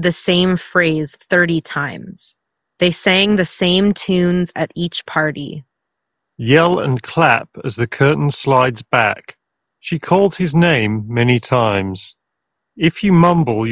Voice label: wireless, Ideal microphone sample; Alienware 720H; alienware aw720h